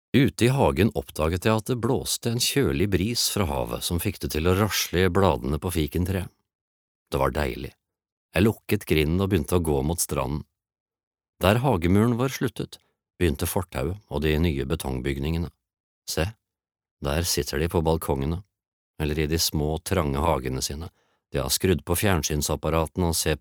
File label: no audio book